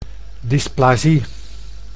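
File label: "dysplasie", Dutch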